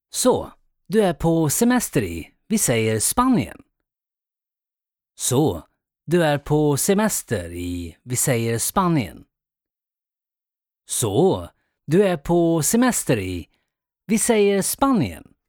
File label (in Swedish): SWE